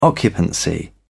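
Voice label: "occupancy", British English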